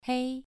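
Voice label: "hei", Mandarin Chinese, second tone, pronunciation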